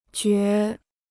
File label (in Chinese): "觉", Chinese